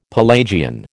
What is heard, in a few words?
"Pelagian", American